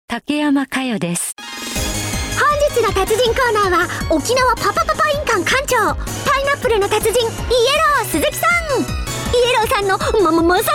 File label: female tv character